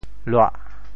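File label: "luah4", Teochew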